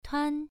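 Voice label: "tuan" Mandarin Chinese, first tone, pronunciation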